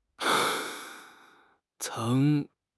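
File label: "ceng2", Chinese; HSK; HSK3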